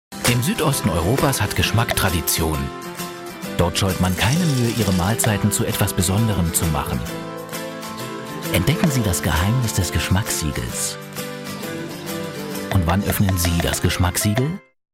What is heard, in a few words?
tv spot